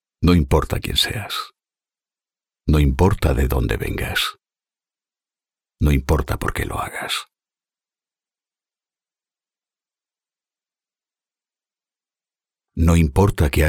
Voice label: spanish narration

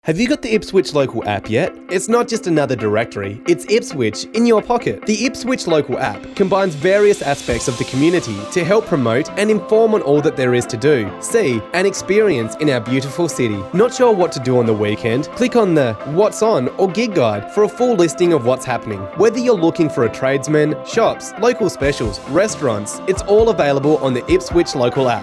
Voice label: english australian

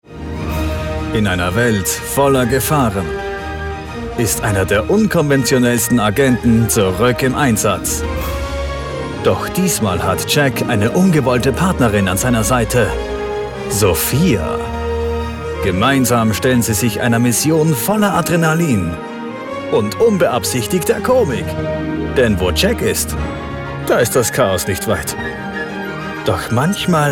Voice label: german commercial

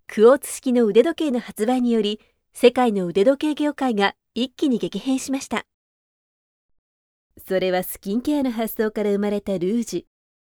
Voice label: japanese narration

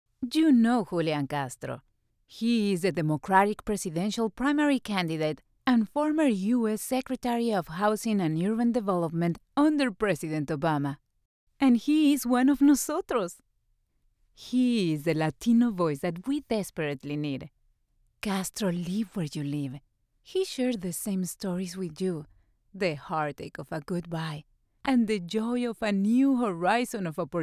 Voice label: english political ads

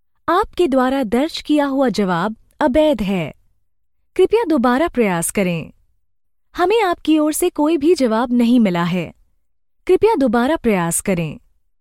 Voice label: hindi ivr; professionelle indische Sprecherin

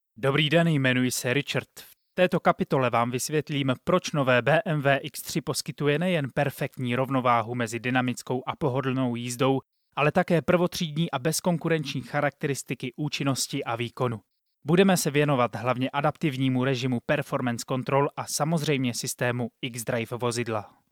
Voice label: czech e-learning

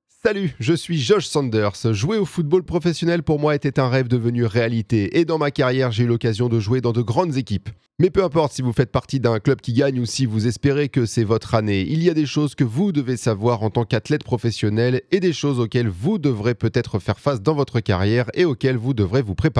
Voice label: french others

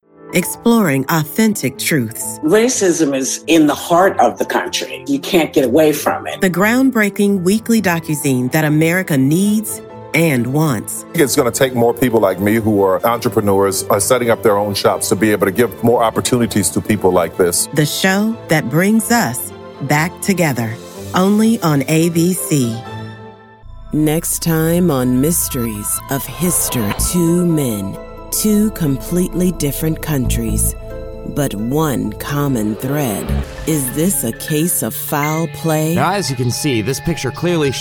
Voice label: English American promos

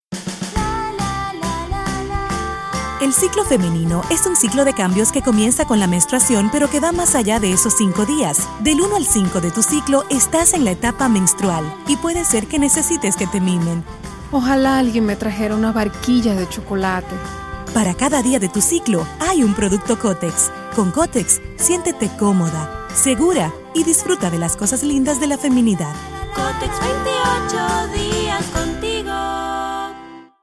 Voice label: spanish latin american promos

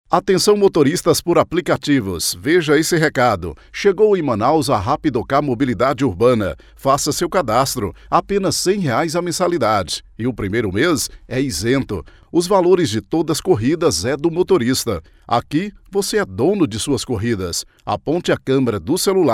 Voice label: portuguese brazilian announcements

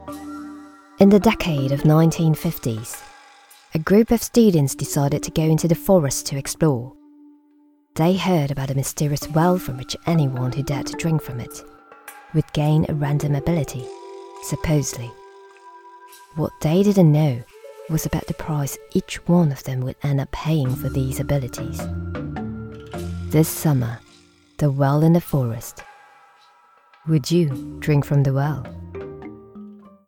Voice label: english movie trailers

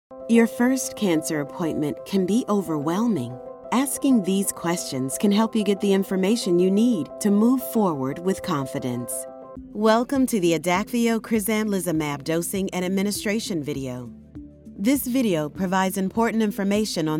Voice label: english american medical narration; United States

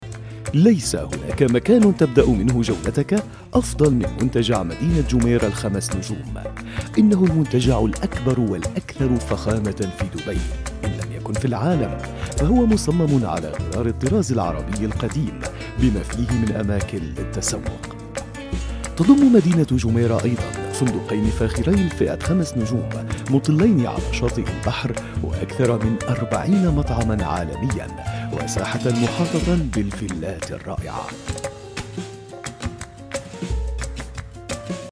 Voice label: arabic narration

